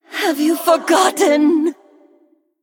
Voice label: Haunting Nightmare Female Night Elf; Duels; Minion Haunting Nightmare

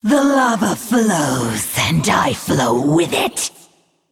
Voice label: Female Naga Play

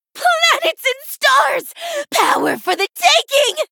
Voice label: Female Blood Elf Play

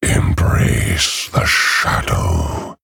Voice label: Male Orc Greetings

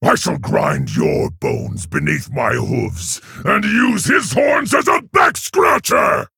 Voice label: Male Centaur; Hezrul Bloodmark; Rokara

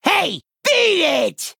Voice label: Male Goblin Attack

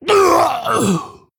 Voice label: Male Tauren Death

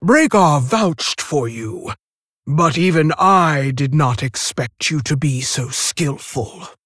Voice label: Female Tauren; Story Hero Helka; Story Valeera Mission2 Exchange

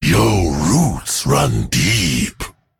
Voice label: Male Drust Emote Well Played

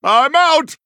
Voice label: Male Human No Cards

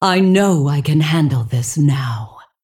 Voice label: Female Dragon Bark; Alexstrasza

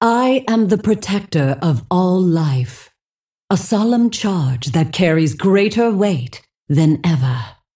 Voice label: Female Dragon Bark; Alexstrasza

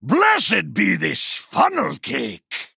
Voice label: Male Dwarf Play

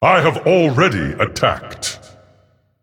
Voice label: Male Titan Forged Emote ERROR I ATTACKED

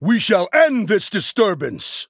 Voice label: Male Human Play

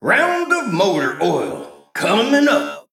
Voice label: Male Mech General

